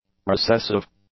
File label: "recessive", English; adjectives